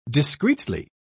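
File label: "discretely", British English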